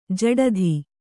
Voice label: "ಜಡಿತ", Kannada

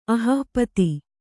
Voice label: "ಅಹಃಪತಿ", Kannada

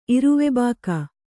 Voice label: "ಇರುವೆಬಾಕ", kannada

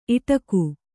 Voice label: "ಈಡಿಗ", Kannada

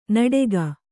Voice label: "ನಡಗೆ", kannada

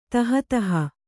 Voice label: "ತಹತಹ", Kannada

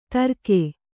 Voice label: "ತರ್ಕೆ", kannada

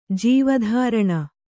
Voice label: "ಜೀವಧಾರಣ", Kannada

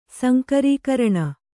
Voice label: "ಸಂಕರೀಕರಣ", Kannada

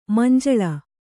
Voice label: "ಮಂಜುಲ", Kannada

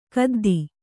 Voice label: "ಕದ್ದಿ", Kannada

